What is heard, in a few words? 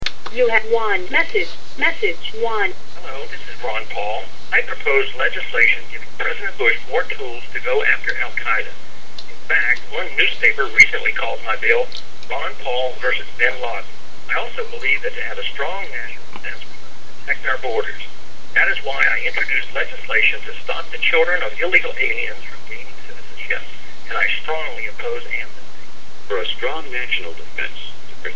phone canvas; ron paul